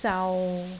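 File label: "zau6", Cantonese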